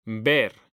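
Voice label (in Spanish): "ver", Spanish; mekanta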